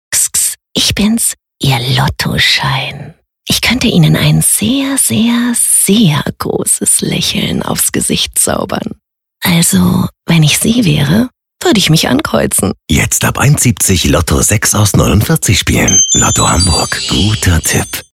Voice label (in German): Funkspot; Lotto